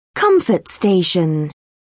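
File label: "comfort station", British English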